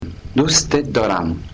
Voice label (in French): persan familie